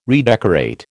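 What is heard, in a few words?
"redecorate", American English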